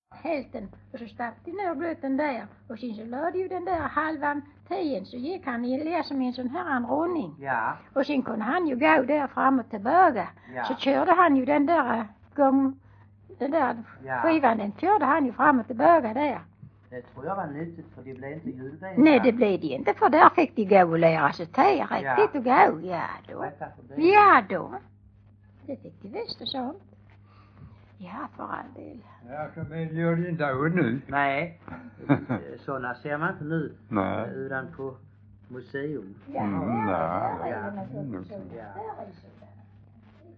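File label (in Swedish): Stehag Västra Sallerup Skåne, dialekter; Folkminnen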